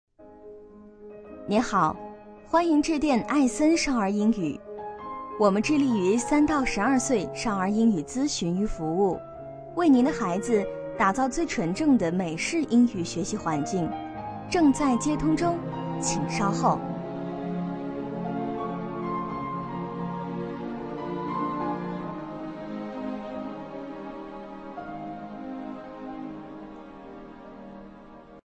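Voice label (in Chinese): 女彩铃; 艾森英语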